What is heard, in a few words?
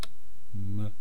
"mu", East Cree syllabics